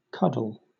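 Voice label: "cuddle", British English; pronunciations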